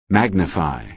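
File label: "magnify", American English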